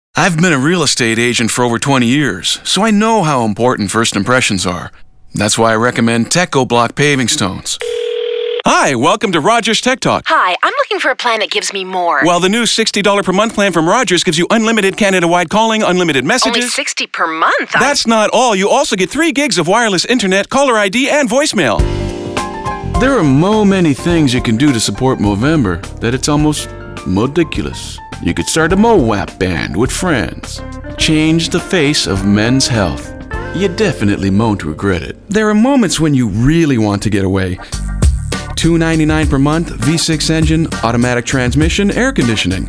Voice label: ads